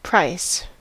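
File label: "price", American English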